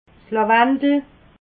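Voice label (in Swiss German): "lawendel", Alsatian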